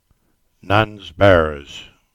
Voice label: "Buryas Bridge", Cornish; place names